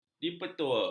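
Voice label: "dipertua", Malay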